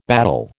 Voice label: "battle", American